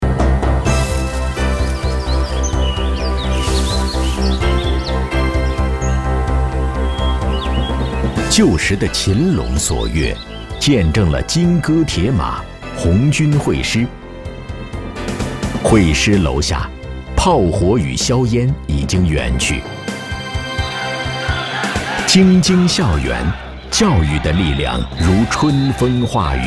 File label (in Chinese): 男 纪录片 地方志民俗历史